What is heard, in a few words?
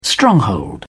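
"stronghold", British English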